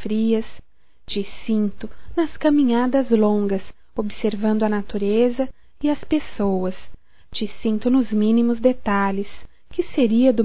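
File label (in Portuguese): VOCE CHEGOU, female, Telemensagens, Só Voz; Telemensagens 2017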